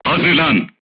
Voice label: voice message load, crew tanks turkish

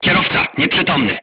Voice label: voice message driver contused, crew tanks polish

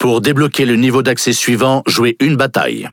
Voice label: Speech Access Level Unlock, FR; World Of Warships

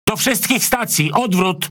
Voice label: Speech Quick Commands Back, PL; World Of Warships

